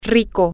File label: good food, spanish, F